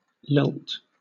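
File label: "lilt", British English; pronunciations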